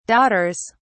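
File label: "daughters", English, British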